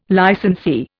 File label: "licensee", Indian